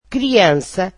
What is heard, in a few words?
"child", portuguese